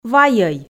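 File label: "вайӧй", Komi, female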